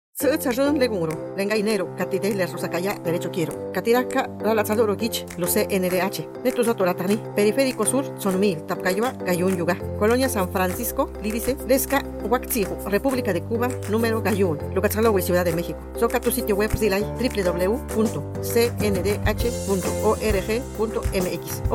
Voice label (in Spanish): Spot Zapoteco; Campanas; CNDH